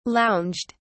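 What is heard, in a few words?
"lounged", British English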